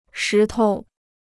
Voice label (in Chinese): "石头", Chinese